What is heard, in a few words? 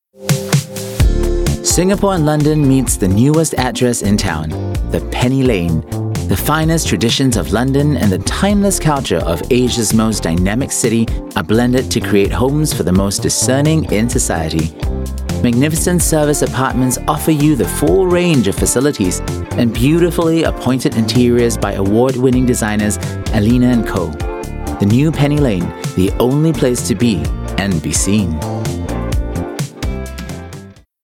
Asian English; Penny Lane UK Property